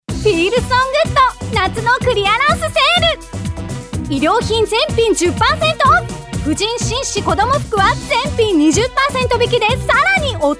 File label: Narration